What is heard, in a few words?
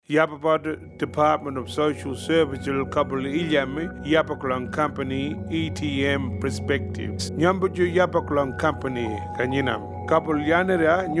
Income Management Consult Warlpiri